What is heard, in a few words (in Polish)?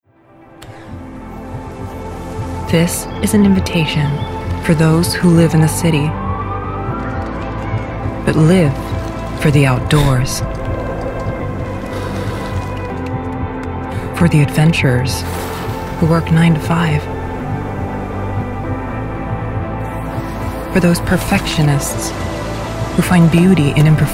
reklama amerykanski